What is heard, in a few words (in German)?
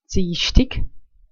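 "ziischtig", Swiss German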